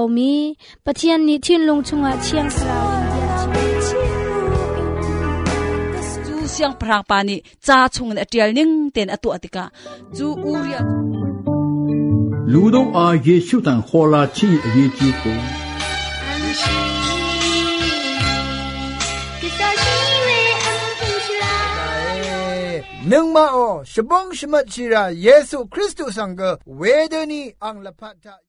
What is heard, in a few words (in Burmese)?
Myanmar; Asia Pacific region